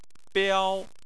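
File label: "biao" Chinese, first tone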